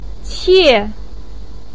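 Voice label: "qie4", Mandarin Chinese, pinyin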